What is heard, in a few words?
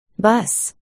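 "bus", spoken word, articulation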